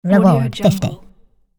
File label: cartoon voice says reward